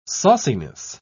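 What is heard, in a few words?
"sauciness", English, British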